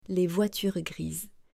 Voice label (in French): "Les voitures grises", French